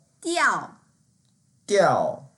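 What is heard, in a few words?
"diao4", Mandarin Chinese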